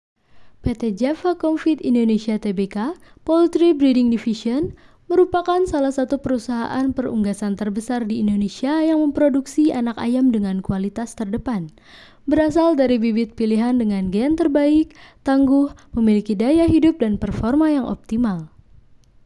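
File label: Indonesian formal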